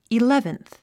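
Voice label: "eleventh", English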